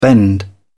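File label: "bend", English, British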